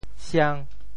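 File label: "siang5", Teochew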